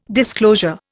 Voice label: "disclosure", Indian